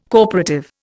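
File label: "corporative", Indian English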